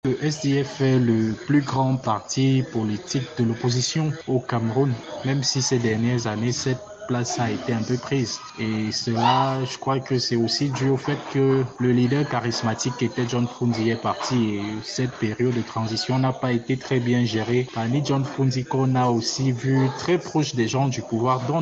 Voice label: VOX POP; SDF CAMEROUNAIS